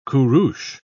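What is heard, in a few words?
"kurus", British English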